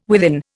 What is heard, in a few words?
"within", Indian